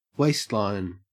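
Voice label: "waistline", Australian English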